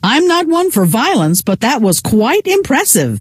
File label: "quite impressive", scientist female